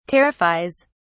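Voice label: "terrifies", English, British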